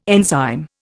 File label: "enzyme", Indian